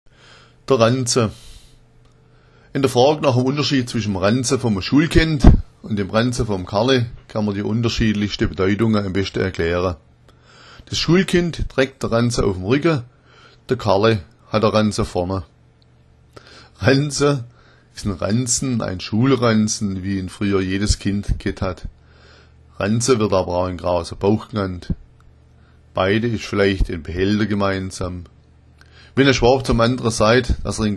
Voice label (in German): ranza, schwaebisch